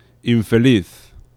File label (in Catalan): "infeliz", nasals; laboratori fonetica